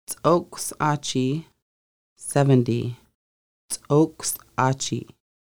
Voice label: Seventy, conversational Lushootseed; Conversational Lushootseed: Lessons 1-5

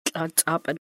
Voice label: belt, Lushootseed

